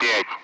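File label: Speech Sound Russian Common Allied Flight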